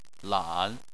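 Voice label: "lan", Chinese, third tone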